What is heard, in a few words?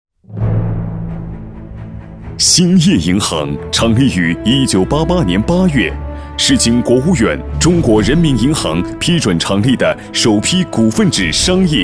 boy